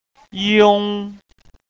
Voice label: "iong", mandarin; 普通話科